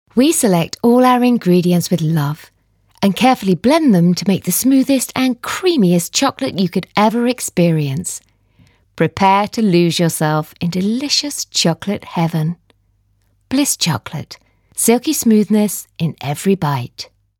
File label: Commercial